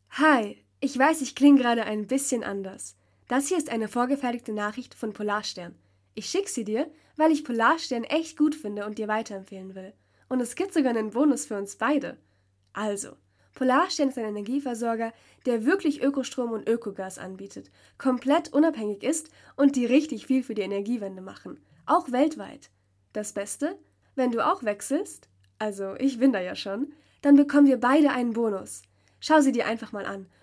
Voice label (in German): sprachnachricht; polarstern energie als kunde; weiterempfehlen